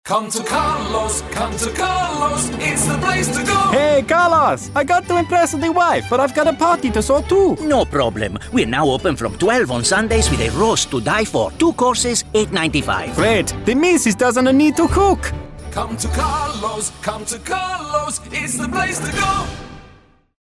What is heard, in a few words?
italian accent